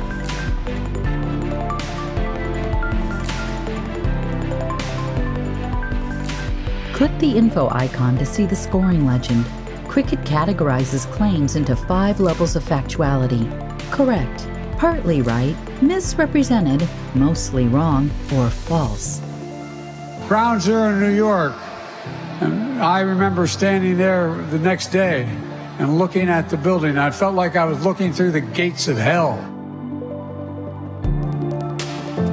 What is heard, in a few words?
with Music